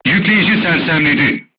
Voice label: voice message charger contused, crew tanks turkish